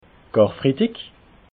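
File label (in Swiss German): "karfridig", Alsatian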